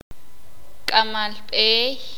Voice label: "jefe", sakapulteko

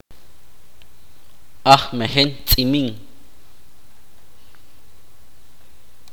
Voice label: burro, itza

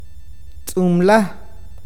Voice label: piel humana, awakateko

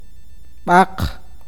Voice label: "hueso", awakateko

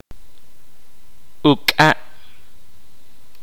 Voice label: "cuerno", Kaqchikel

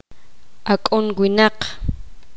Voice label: poqomam inmaduro

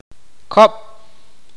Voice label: "dos", awakateko

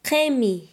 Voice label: "estrecho", Quechua Chanka

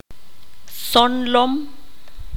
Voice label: "Marimberos", qanjobal

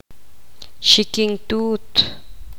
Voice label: poqomam tia la hermana menor de su padre

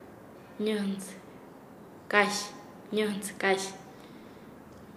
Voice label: "ojo de aguja", Nasa Yuwe; paez

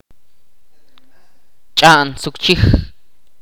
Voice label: "Lazo", Ch'orti'

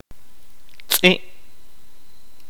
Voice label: "perro", kaqchikel

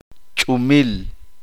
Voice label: "estrella", uspanteko

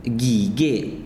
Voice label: Zapoteco granizo de hielo; miahuatec

